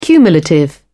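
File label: "cumulative", British English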